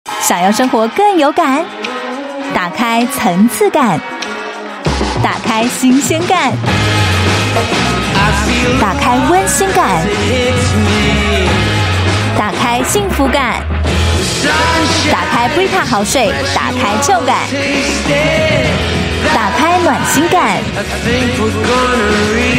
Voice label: Mandarin Taiwan Voice Sample